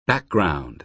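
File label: "background", American English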